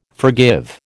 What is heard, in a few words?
"forgive", American